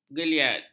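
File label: "geliat", Malay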